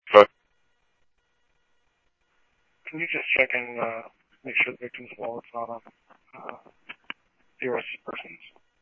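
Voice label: police radio dispatch, Scottsdale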